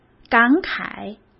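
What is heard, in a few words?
"感慨", Mandarin Chinese; chinese-flashcards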